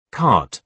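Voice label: "cart", British English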